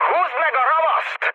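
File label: attack position, mood med, Hungarian; War Thunder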